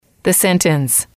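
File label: "sentence", English, female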